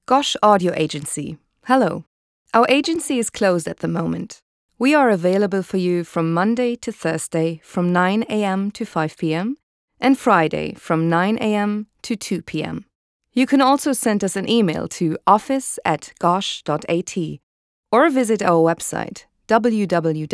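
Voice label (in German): Nachtschaltung Englisch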